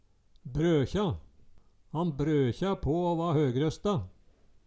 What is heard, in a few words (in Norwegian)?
"brøkje", Numedalsmål